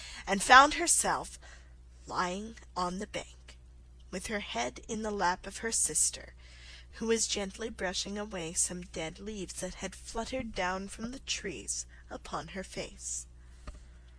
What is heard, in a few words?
alice in wonderland slow